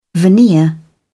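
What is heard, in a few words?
"veneer", British English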